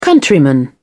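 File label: "countryman", British English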